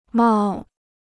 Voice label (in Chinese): "冒", Chinese